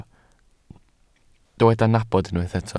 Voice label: "nabod", Welsh

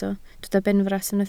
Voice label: "benfras", Welsh

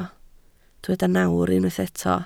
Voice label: "nawr", Welsh

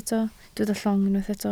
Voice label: "llong", Welsh

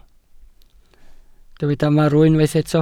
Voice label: "marw", welsh

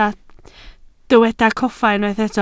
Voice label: "coffa", Welsh

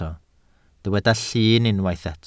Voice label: "llun", Welsh

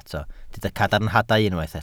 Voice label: "cadarnhadau", Welsh

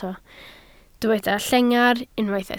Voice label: "llengar", Welsh

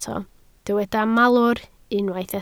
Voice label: "malwr", Welsh

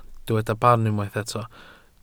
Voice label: "barn", welsh

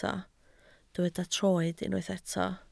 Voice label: "troed", Welsh

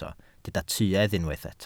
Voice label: "tuedd", Welsh

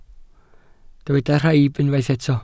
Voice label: "rhaib", welsh

